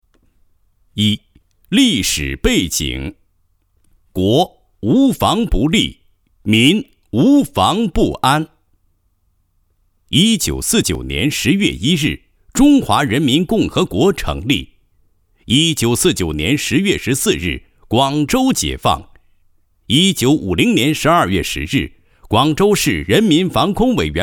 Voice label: 男 项目介绍 专题片配音; 广州地下长城-坑管所

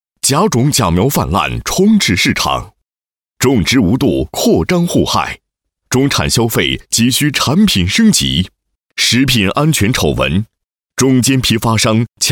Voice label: 男 品牌宣传 宣传配音; 玖红庄园级有机红肉苹果

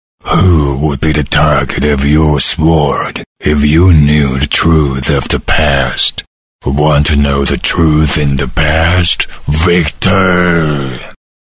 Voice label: 英男 角色 霸气邪恶沧桑; 巫妖王

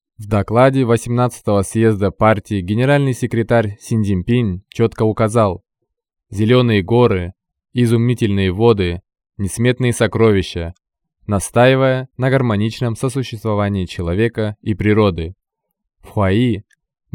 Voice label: 外籍俄语男; 哈萨克斯坦农业园项目

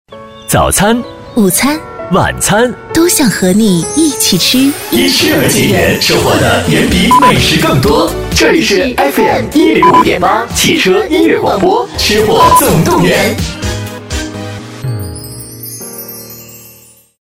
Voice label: 女 片花; 吃货总动员

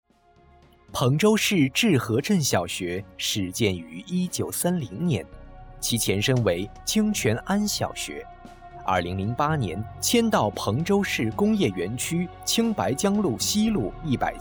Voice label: 致和镇小学宣传片配音

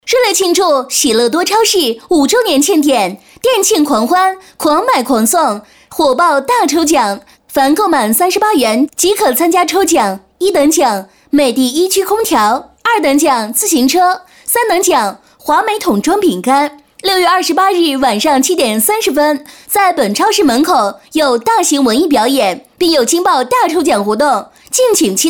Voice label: 女99号 广告 激情, 国语女声配音; 喜乐多超市

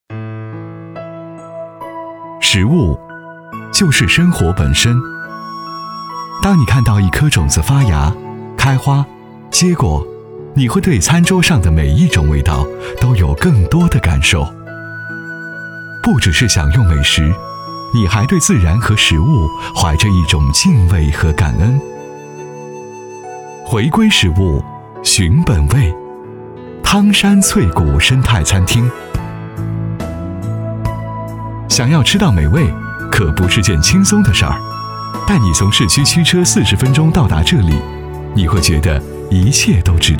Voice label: 男声 磁性 专题 温和 沉浸; 国语男声配音; 汤山翠谷餐厅

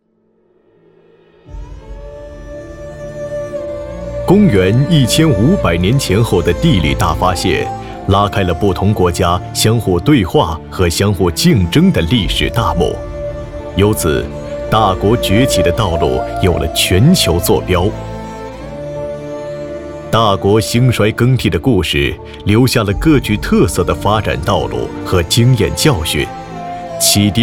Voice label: 男 纪录片 深沉大气; 大国崛起

